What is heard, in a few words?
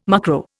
"mucro", Indian